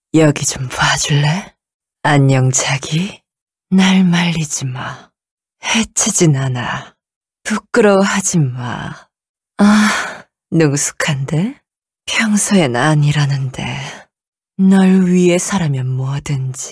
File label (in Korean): 30대 섹시하게 유혹하는 여자